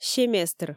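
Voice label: "семестр", Komi, female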